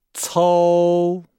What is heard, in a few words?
"cou", Nihao